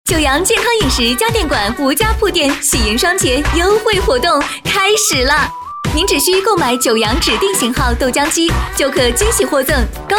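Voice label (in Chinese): 促销广告配音, 女声配音欢快、时尚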